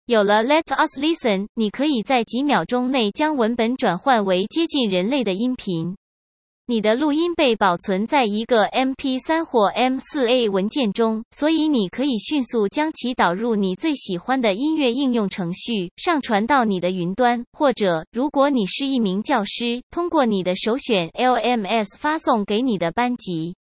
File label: listen mandarin